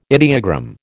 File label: "ideogram", American